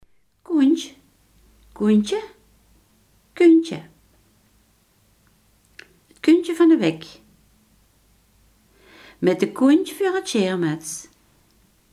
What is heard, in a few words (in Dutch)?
"kont", Limburgs